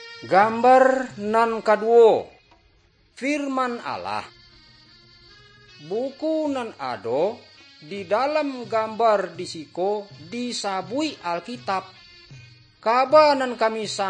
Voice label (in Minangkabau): good news minangkabau